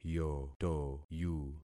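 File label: "yotoyu", concatenated syllables; Affixing experiment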